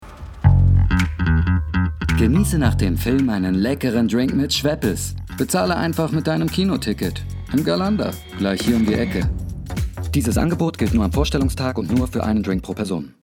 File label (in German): Werbung Kino; Schweppes